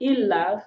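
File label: "laver ils", French; frans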